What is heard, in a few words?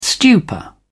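"stupor", English, uk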